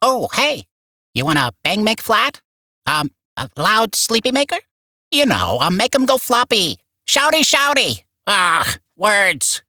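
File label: Barks Bird, English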